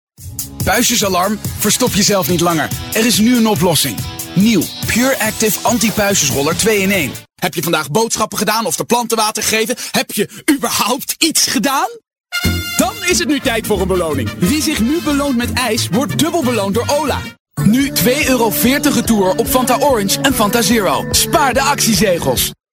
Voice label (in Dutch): Enthousiast, De Stemmen